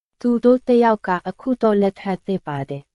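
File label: Myanmar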